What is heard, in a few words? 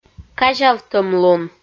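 "казявтӧмлун", Komi, female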